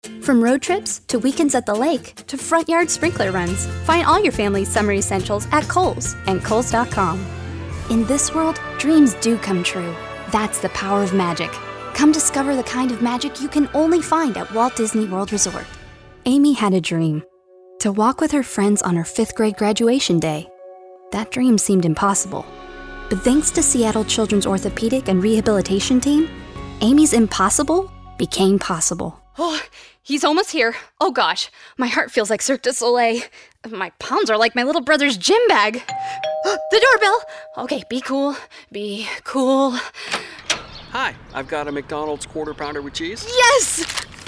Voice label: Commercial